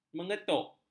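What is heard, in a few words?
"mengetuk", Malay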